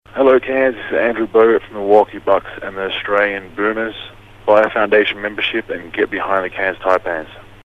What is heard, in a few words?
promo